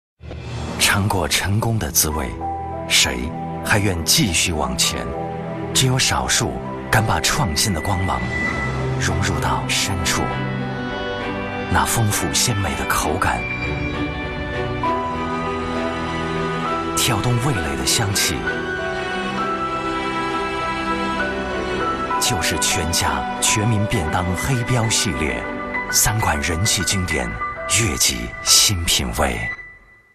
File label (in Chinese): 男 广告, 国语男声配音; 全家全民便当30S